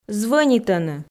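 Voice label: "звӧнитӧны", Komi, female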